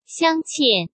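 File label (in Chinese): "镶嵌", Mandarin Chinese; chinese flashcards; hsk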